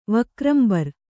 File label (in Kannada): "ವಕ್ರಂಬರ್", Kannada